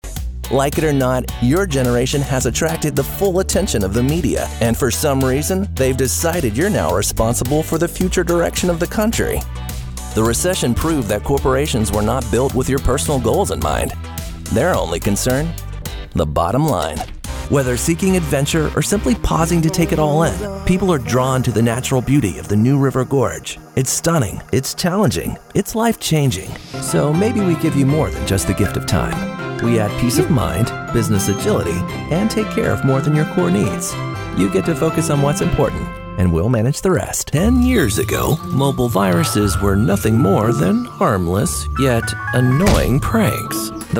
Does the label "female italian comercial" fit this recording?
No